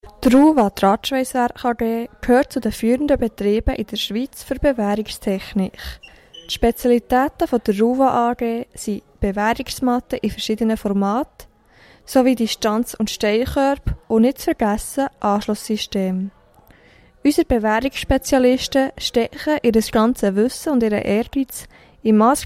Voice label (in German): Jingle; RUWA AG